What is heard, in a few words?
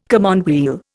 "commonweal", Indian